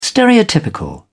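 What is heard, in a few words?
"stereotypical", British English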